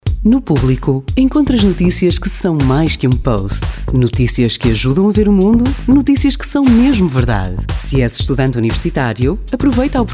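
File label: Portuguese, female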